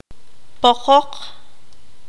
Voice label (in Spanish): polvo, qanjobal